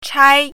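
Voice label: "chai1", Mandarin Chinese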